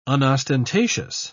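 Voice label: "unostentatious", British English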